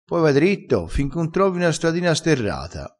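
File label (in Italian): "dritto", dialettale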